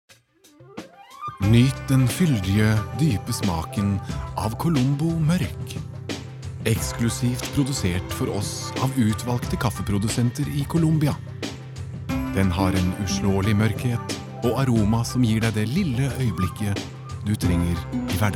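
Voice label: Norwegian soft sell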